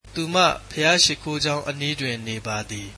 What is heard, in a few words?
she lives near the church, Burmese